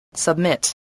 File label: "submit", spelling